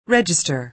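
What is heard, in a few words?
"register", American English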